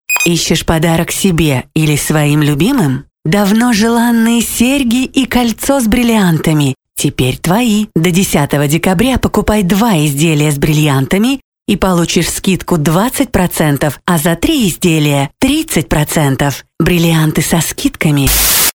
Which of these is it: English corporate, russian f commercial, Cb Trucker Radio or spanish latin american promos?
russian f commercial